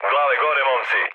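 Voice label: Serbian near waypoint mood low; speech; War Thunder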